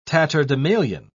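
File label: "tatterdemalion", British English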